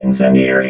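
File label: "incendiary", robot voice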